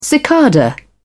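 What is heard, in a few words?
"cicada", British English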